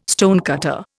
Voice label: "stonecutter", Indian